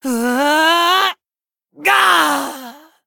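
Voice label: Male Blood Elf Death; en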